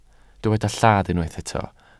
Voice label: "lladd", welsh